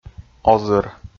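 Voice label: "озыр", Komi, male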